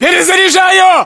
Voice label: inform reloading generic, battlechatter ru

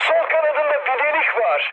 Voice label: left wing, mood med, speech tur; War Thunder

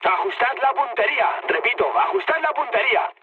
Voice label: player friendly fire, mood high, speech

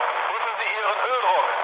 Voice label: damaged oil, mood low, speech ge; War Thunder

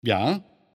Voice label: Neutral Hear It; Civ5; Bismark

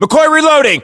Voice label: US inform reloading, battlechatter, english

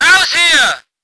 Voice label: voice ger vehicle

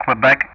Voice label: "Quebec", Speech ENG; Ka-50; Alphabet

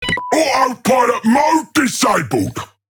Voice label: Ork Autopilot Disabled; World Of Warships; Warhammer 40000

